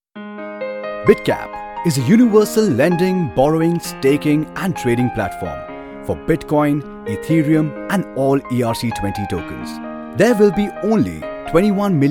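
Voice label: Explainer English; Bitcap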